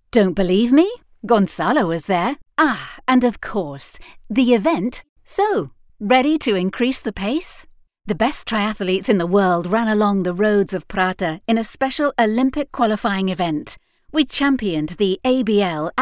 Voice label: english female

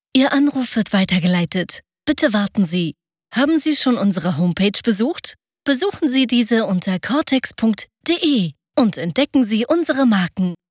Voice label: german female

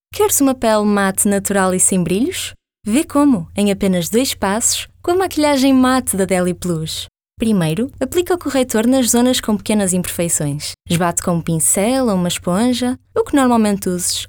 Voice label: female, portuguese voices

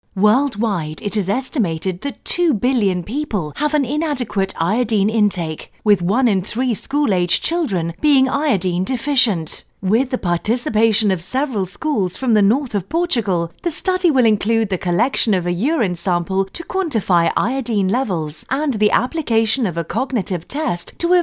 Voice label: english female

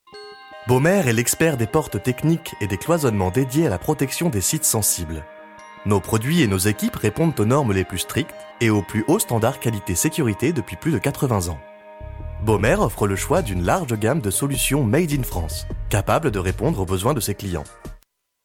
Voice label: french male

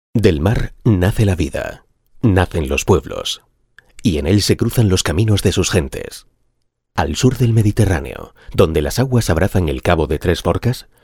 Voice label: spanish male

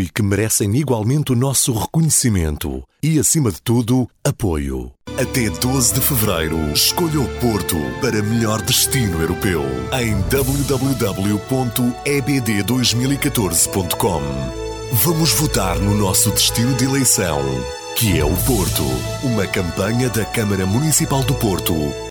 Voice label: male, portuguese voices

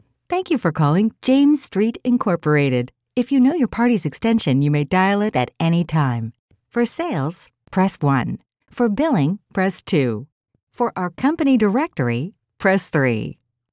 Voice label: American female

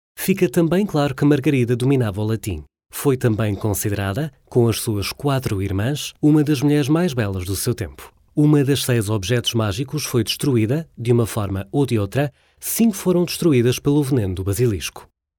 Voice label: male, portuguese voices

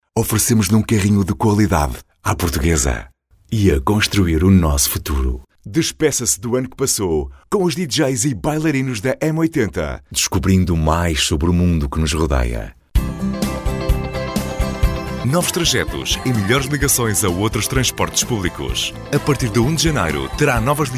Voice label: male, portuguese voices

